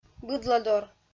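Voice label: "быдладор", Komi-Zyrian, female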